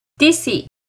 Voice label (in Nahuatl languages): "tici", Náhuatl; moler maíz; INALI; UTexas; NAHUATLAHTOLLI